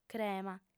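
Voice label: "crema", female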